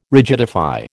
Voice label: "rigidify", American

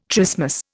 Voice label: "trismus", Indian

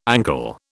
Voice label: "ankle", British

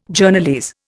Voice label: "Journalese", Indian

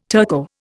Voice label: "Turco", Indian

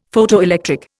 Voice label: "Photoelectric", Indian; Dictionary

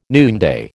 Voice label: "noonday", American

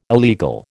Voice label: "illegal", American

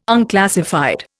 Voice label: "unclassified", Indian

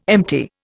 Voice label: "empty", Indian

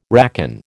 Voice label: "racon", American

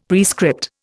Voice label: "prescript", Indian; Dictionary

